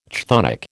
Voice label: "chthonic", American

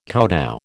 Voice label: "kowtow", American

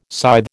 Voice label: "scythe", American

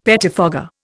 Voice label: "pettifogger", Indian; Dictionary

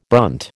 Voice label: "brunt", American

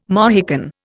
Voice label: "Mohican", Indian

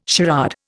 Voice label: "charade", Indian

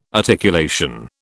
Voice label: "articulation", British

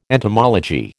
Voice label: "entomology", American

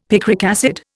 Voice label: "picric acid", Indian; Dictionary

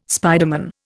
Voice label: "spiderman", Indian

